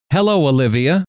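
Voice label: hello, male; trainer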